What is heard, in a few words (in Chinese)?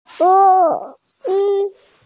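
"bo luo", Mandarin Chinese, female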